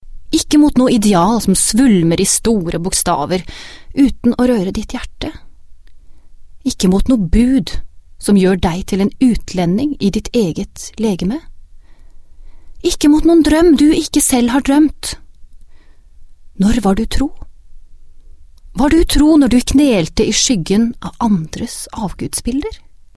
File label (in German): Norwegen W